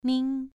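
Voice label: "ming", tone 2, pronunciation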